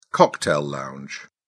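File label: "cocktail lounge", English, British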